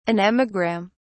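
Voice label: "anemogram", British English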